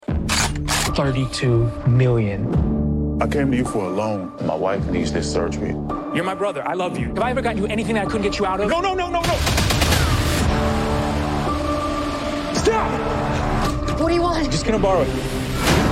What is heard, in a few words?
Ambulance, TV Spots